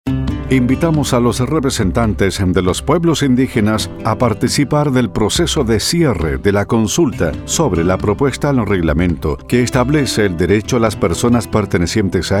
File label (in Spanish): FRASE INDIGENA SALUD Diaguita; Región de Coquimbo